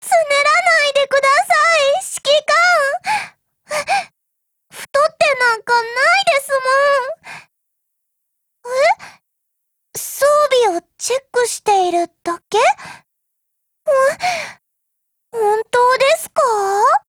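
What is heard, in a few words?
DIALOGUE Japanese; PPsh41Mod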